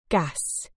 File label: "gas", uk